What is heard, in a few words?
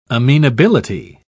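"amenability", English, British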